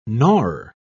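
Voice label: "gnawer", British English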